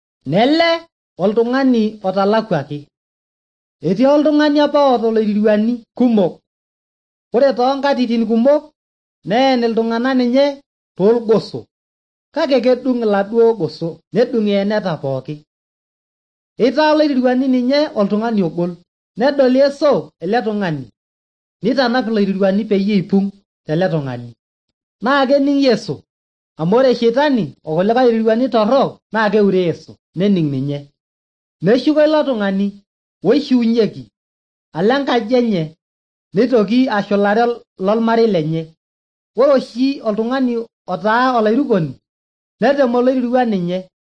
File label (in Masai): maasai bsv nt drama; good news; bible